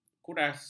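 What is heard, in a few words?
"kuras", Malay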